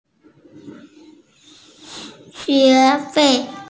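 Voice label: "tuition", hearing impaired; xue2fei4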